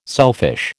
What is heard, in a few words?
"selfish", American